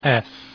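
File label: "let", female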